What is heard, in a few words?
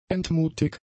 "entmutig", German; adjectives